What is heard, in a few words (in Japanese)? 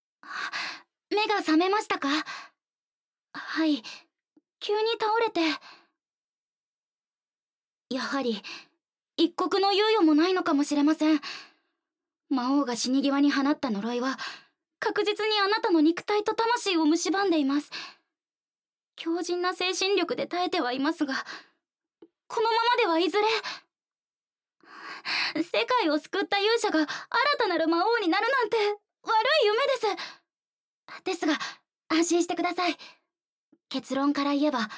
ボイスオンリー, ASMR; 魔王の呪いは私が解いてみせます！